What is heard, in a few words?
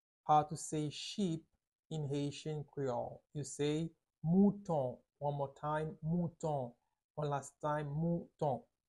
"Mouton" Haitian Creole pronunciation by a native Haitian tutor; How to say Sheep in Haitian Creole